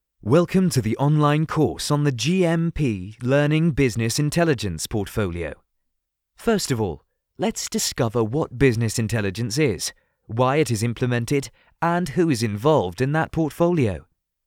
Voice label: E-Learning UK